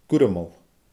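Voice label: "gurmal", Scottish Gaelic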